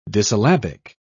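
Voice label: "dissyllabic", British English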